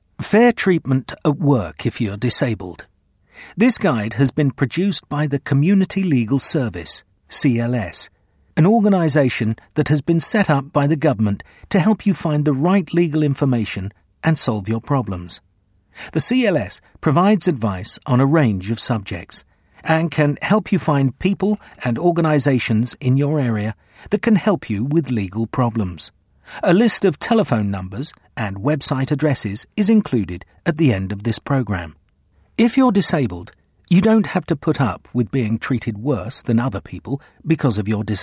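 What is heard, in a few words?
narration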